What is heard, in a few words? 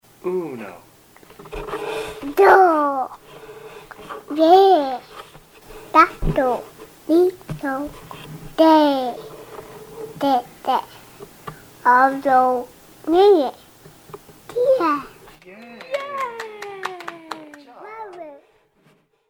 ten spanish